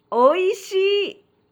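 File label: "oishi", Japanese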